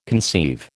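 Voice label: "conceive", American English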